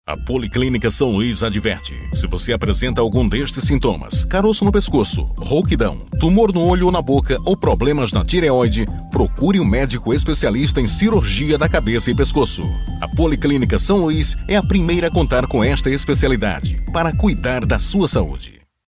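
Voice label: Brazilian Portuguese, male; character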